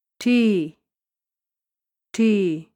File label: "ᑏ" Inuktitut; thee-in-andere-talen